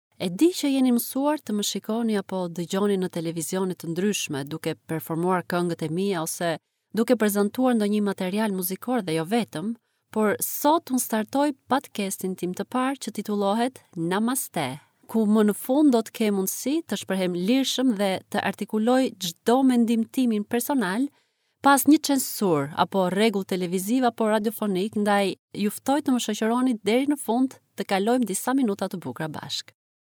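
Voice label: Albanian, female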